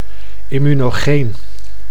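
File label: "immunogeen", Dutch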